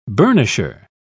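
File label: "burnisher", British English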